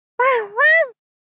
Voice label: わんわんっ♡ 幼女 中音域

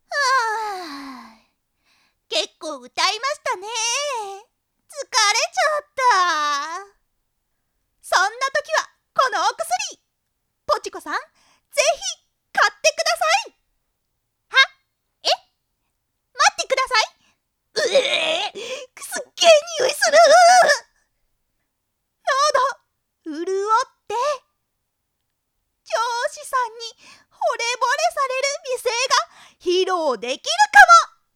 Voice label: ASMR, CM風; カラオケ; カラオケの後のお薬

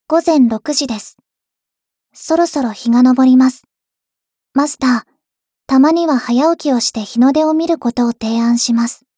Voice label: 時報ボイス 電脳少女; ボイスコレクション 電脳少女編

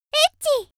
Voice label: 少女 エッチ！, 元気な少女

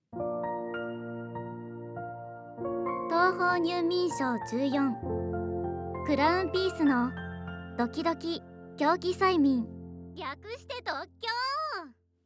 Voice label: タイトルコール

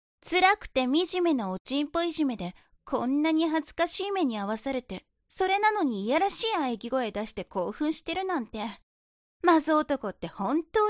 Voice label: 懲罰中音声, ASMR

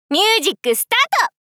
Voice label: ミュージックスタート！大人×中音域

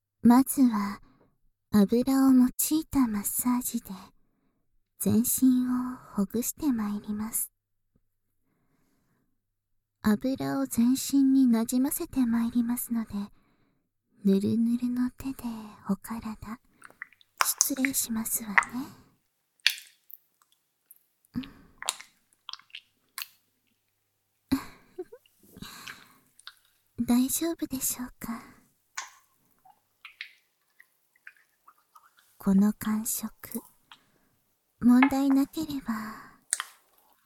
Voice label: 全身マッサージ+保湿クリーム（バイノーラル 効果音あり大きめ）

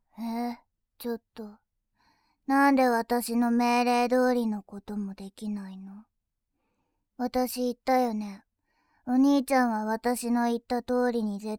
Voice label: 失敗ボイス

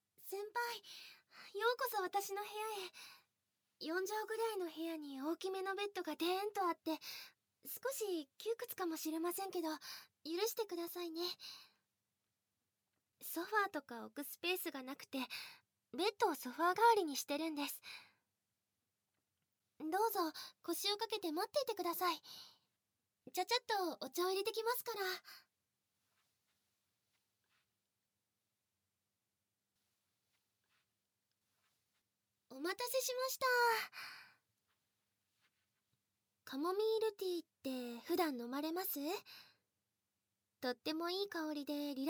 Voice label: 催眠誘導

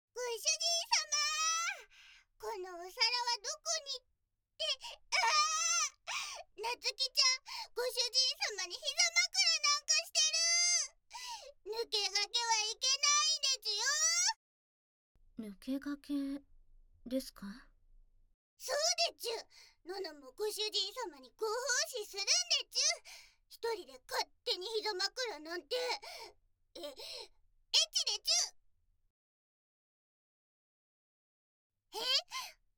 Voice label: 耳マッサージとスペシャルリラクゼーション♪(効果音なし)

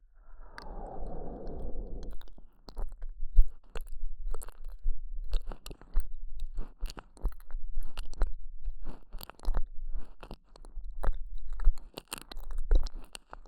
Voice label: にゅじゅっ…にゅじゅっ…, 右耳

